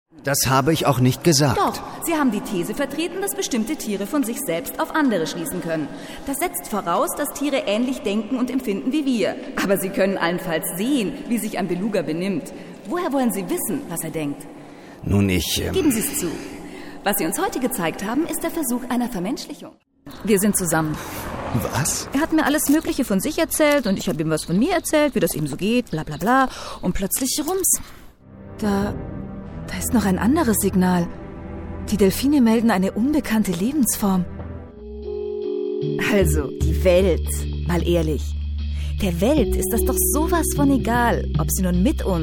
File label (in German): Hörspiel; Der Schwarm